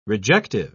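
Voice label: "rejective", British English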